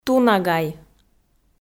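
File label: "тунагай", Komi, female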